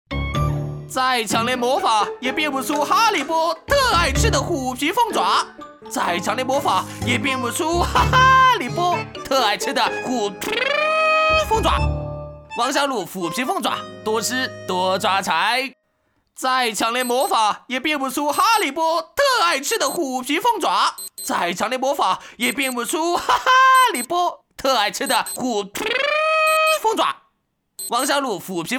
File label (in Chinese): 男 搞笑幽默 广告; 王小卤广告